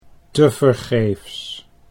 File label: "tevergeefs", Dutch